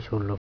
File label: "Solo" walk, andamanese